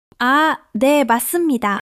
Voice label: joy; monologue

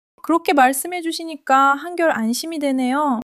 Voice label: joy; monologue

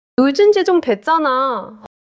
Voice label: neutral, monologue

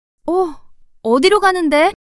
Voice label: surprise, monologue

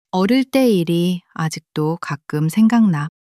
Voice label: sadness, monologue

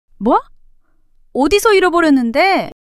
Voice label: surprise, monologue

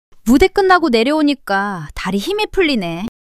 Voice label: fluster; monologue

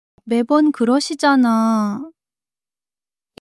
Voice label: sulk, monologue

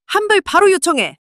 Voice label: anger

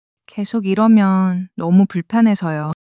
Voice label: sadness, monologue

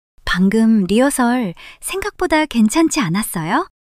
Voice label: shy; monologue

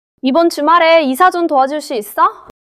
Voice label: neutral, monologue

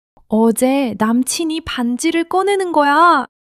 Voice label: excitement

